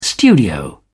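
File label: "studio", English, uk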